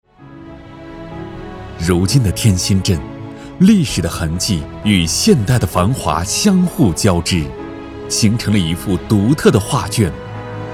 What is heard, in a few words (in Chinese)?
汇报专题样音